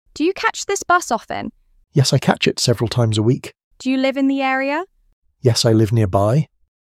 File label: Small Talk Dialogue, English learners